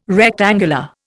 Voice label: "rectangular", Indian